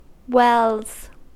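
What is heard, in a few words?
"wells" English, US; pronunciations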